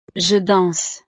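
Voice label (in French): "je danse", French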